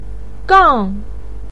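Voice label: "gang" fourth tone, chinese, voice pinyin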